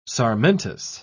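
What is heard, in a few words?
"sarmentous", British English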